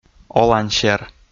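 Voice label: "олансер", Komi, male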